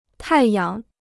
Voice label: "太阳", Chinese

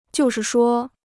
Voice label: "就是说", Chinese

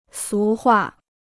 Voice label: "俗话", Chinese

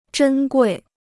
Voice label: "珍贵", Chinese

